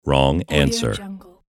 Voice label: announcer wrong answer